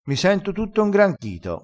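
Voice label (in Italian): "ingranchito", vocabolario dialettale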